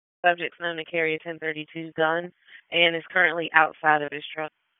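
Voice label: Dispatch 1, P25; wake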